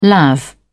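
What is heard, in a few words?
"lav", British English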